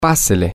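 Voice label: come on in, Spanish, male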